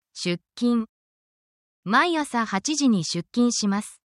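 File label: Japanese word, japanese phrases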